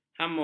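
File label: "hamun", Malay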